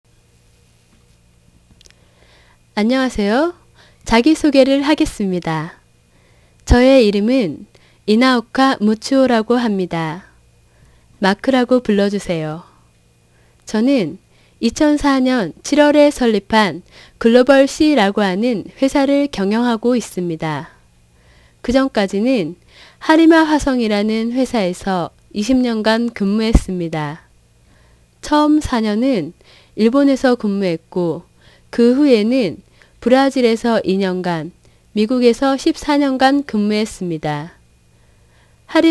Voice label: Intro german